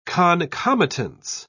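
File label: "concomitance", British English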